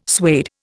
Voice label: "suede", Indian

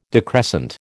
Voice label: "decrescent", American

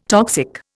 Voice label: "toxic", Indian

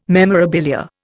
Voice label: "memorabilia", Indian

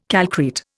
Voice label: "calcrete", Indian

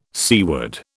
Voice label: "seaward", British

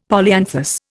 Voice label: "polyanthus", Indian; Dictionary

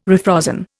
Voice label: "refrozen", Indian

